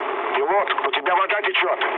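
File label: Russian damaged water mood low, speech; War Thunder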